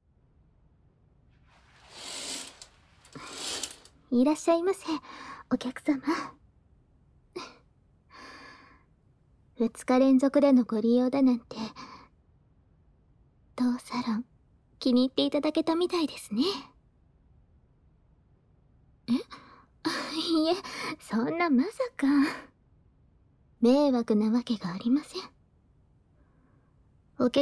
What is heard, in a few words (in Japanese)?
お口まんこ&パイズリマッサージコース, ASMR